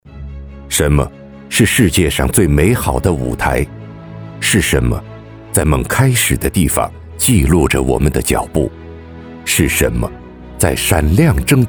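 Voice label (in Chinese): 男【房地产广告 厚重激情】; 碧桂园tvc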